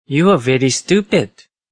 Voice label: You Are Very Stupid, Attitude, Male; VoiceBox